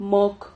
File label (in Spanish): "moc", Silabas